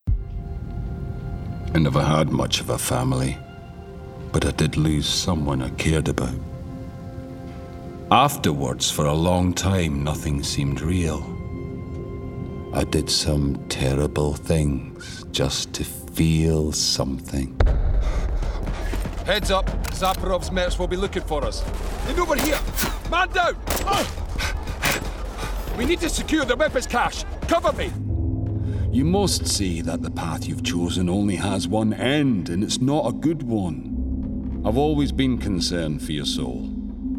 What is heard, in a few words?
voiceover gaming, Scottish voice